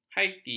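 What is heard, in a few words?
"haiti", Malay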